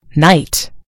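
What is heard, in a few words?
"knight", British English; gcompris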